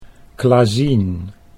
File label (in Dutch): "Klazien", Dutch